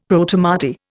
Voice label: "Protomartyr", Indian; Dictionary

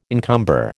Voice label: "encumber", American

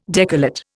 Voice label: "decollate", Indian

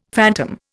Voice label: "phantom", Indian; Dictionary

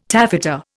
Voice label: "taffeta", Indian

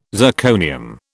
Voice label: "zirconium", British

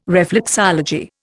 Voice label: "reflexology", Indian

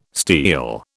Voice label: "steal", British

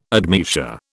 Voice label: "admeasure", British

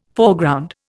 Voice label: "Foreground", Indian

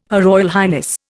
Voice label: "HRH", Indian

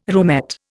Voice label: "roomette", Indian

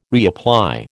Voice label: "reapply", American

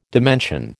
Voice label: "dimension", American

